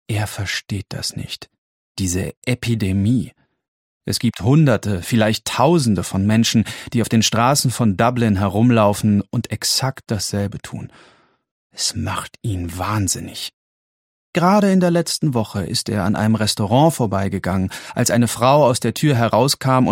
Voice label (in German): Hoerbuch Roman